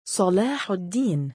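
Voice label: male "9alaa7uddiin", Arabic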